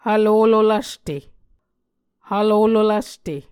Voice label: "hvlolo lvste", Muscogee

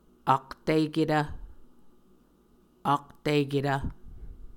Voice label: "aktiketv", muscogee; Mvskoke Words with Voicing Consonants; Martin, Jack B., and Margaret McKane Mauldin. 2023. A Dictionary of Creek/Muskogee; SIL International

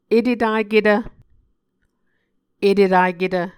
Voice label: "etetaketv", muscogee

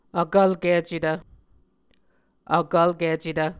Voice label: "vkvlkicetv", Muscogee

